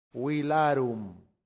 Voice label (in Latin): "villarum", latin; santillana